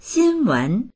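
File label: "xin1wen2", Mandarin Chinese; 新闻; ニュース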